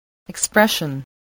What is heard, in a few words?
"expression", voice